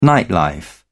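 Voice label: "nightlife", English, British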